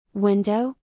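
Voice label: "window", English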